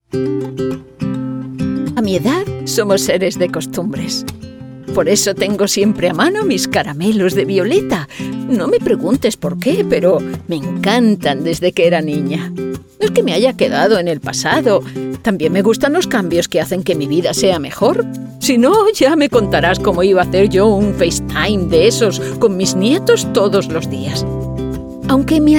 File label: cheerful character senior